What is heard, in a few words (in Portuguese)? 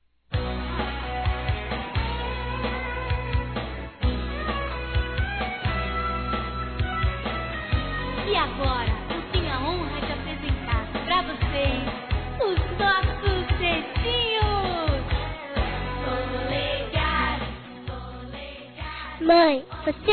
ANIV. MAMÃE CRIANÇA-F, Telemensagens; Aniversário Infantil